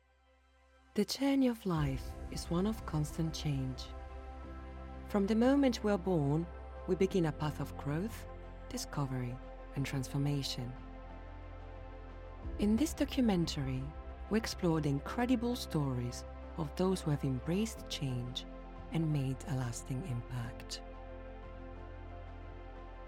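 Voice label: British English Documentary; GoLocalise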